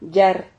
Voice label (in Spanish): "llar", Silabas